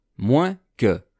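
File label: "moinsque", French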